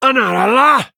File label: Male Blood Elf Trigger